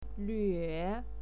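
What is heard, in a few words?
"lüe" third tone, pinyin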